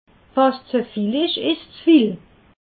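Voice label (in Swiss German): "zevil", Alsatian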